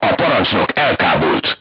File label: voice message commander contused, crew tanks hungarian